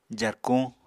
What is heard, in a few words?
"jarkuñ", Apatani